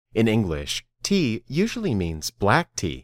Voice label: Dictation